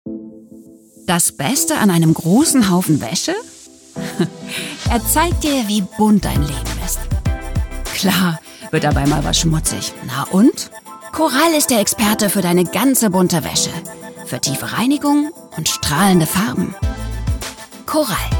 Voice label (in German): Stimmdemo Werbung; Coral